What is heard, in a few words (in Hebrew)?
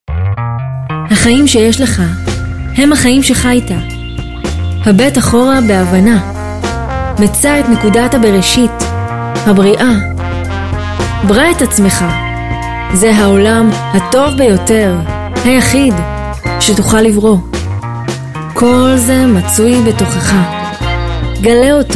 קריאה ספרותית